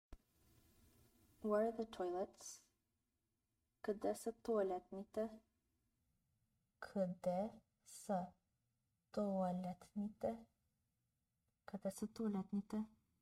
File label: BGN Where are the toilets, Bulgarian; the talking bulgarian phrasebook